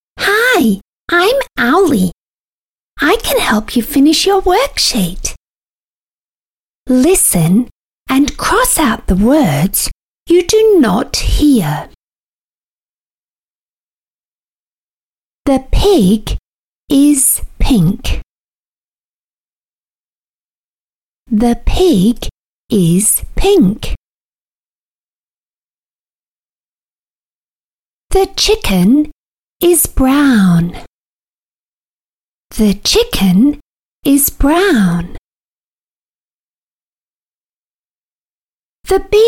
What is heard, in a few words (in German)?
englisch hoeruebung; spatzenpost; arbeitsblatt